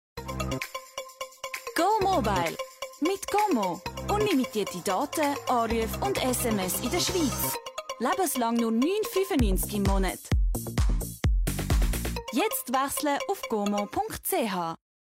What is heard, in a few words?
german switzerland promos